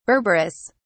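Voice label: "berberis", English, British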